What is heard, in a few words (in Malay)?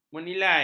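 "menilai", Malay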